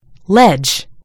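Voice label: "ledge", US English; gcompris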